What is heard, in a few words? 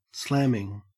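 "slamming" English, Australian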